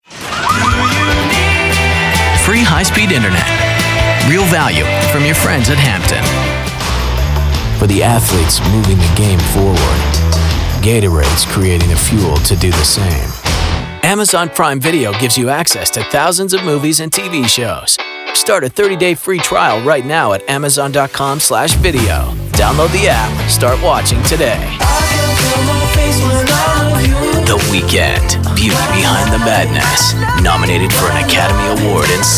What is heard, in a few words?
Commercial